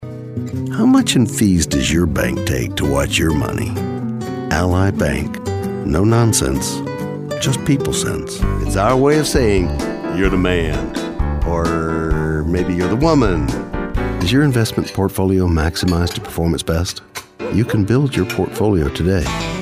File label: Commercial